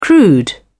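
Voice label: "crude", British English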